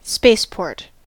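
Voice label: "spaceport", US English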